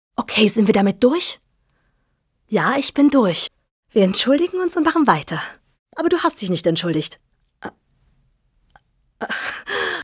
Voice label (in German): Synchron